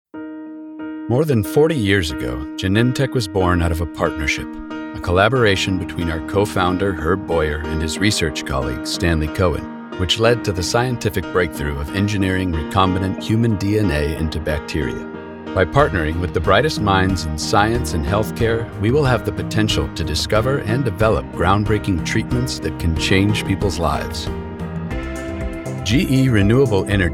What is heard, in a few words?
Corporate Explainer